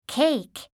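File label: "cake", English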